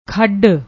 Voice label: "khad", Punjabi

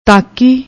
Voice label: "taaki", Punjabi; ਤਾਕੀ

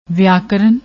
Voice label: "vayakaran", Punjabi; ਵਿਆਕਰਨ

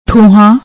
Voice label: "thoohaan", Punjabi

